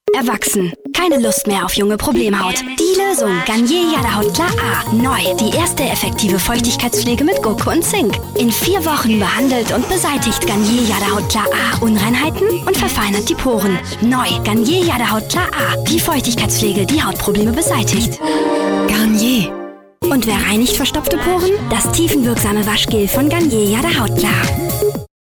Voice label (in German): Werbung Freundlich